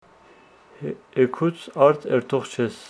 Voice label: Homshetsi tomorrow you wont go to the field